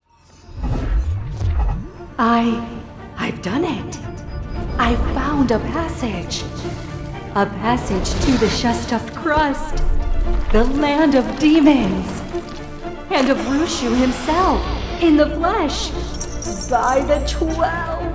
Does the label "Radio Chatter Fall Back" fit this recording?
No